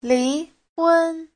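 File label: "líhūn", Chinese; 离婚; ly hôn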